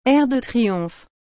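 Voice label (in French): "air de triomphe", French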